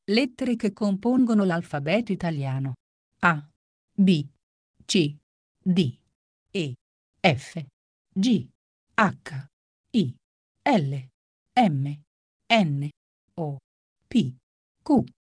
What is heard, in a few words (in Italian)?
lettere alfabeto italiano